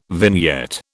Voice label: "vignette", British